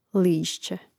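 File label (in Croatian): "lišće", Croatian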